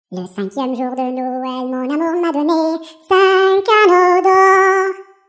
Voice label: sped up; french